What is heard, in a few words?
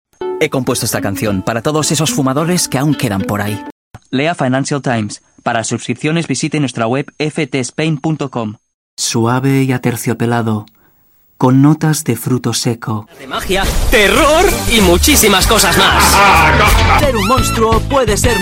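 European Spanish General; GoLocalise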